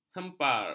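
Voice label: "hepar", Malay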